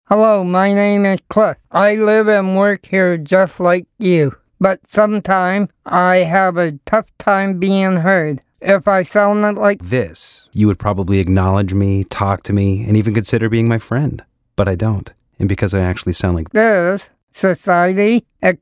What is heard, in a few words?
Male